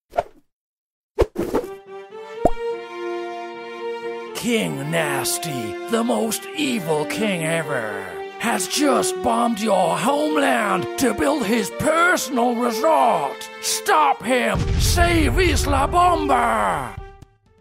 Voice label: videogame trailer locutor ingles voiceover; madrid spain